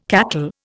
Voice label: "cackle", Indian

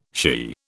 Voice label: "she", British

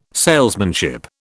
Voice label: "salesmanship", British

